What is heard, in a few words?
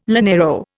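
"llanero", Indian English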